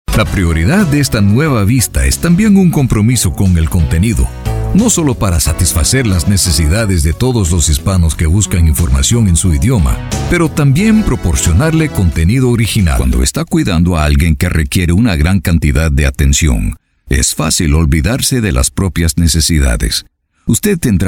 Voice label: Latin Spanish Narration, Spanish Latin Male

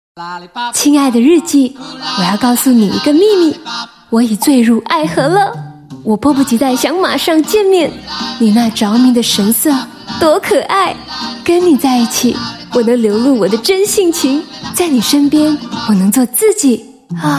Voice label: Mandarin, Mandarin China Female; LG Lollipop

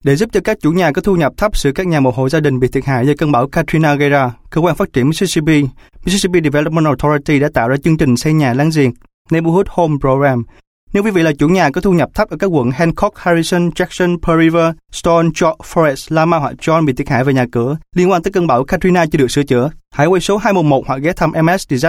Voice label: Vietnamese Southern, Vietnamese Male

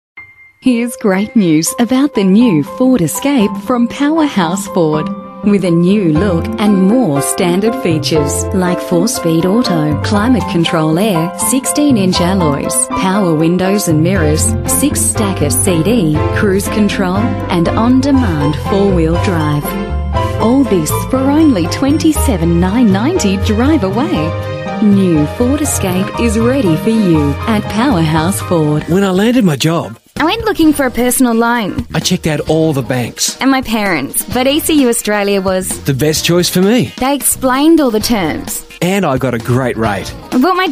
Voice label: Voice Reel Australian English Female

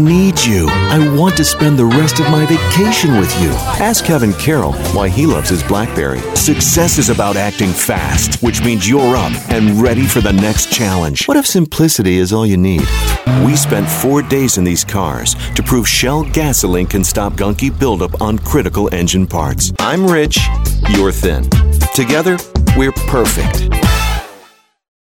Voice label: US English Commercial, Male

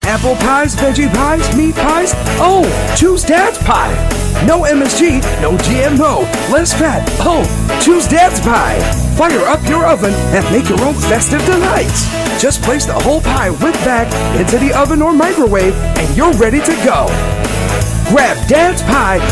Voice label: Asian English, English Singaporean Male; Dads Pie Xmas 2016

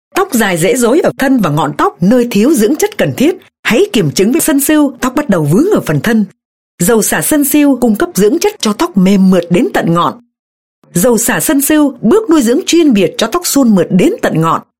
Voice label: North Female Vietnamese

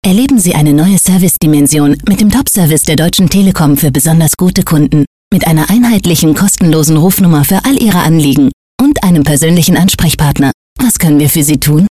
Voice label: German Female; T-Com

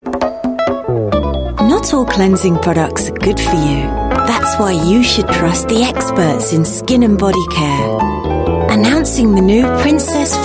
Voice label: UK English, Female; Body Products

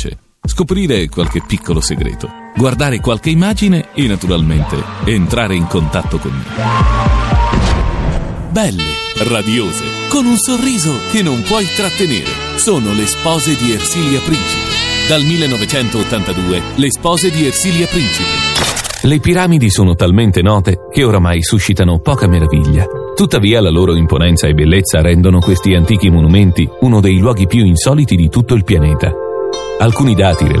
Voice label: Italian, Italian Male

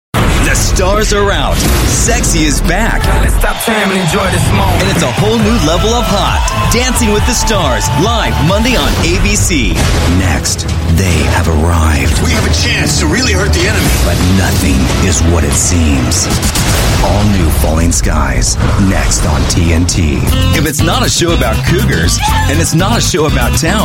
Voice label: US English Male

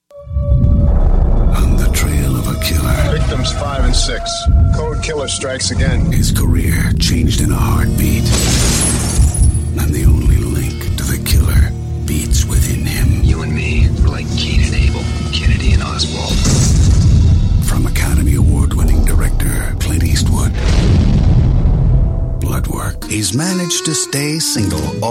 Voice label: US English Movie trailers, English US Male

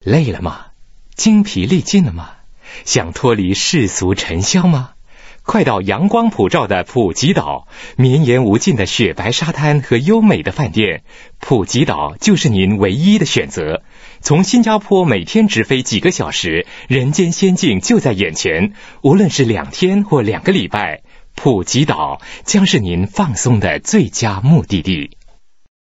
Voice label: Mandarin, Mandarin China Male

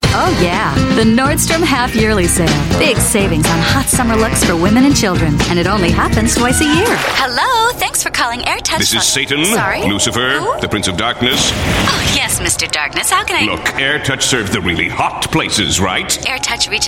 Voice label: US English Commercial, Female

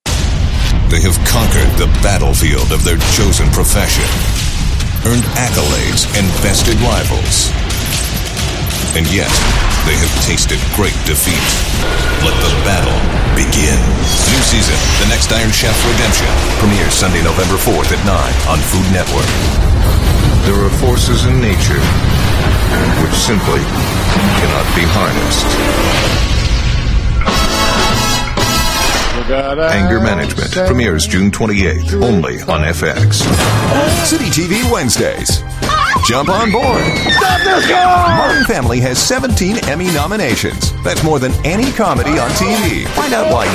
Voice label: US English TV, Male

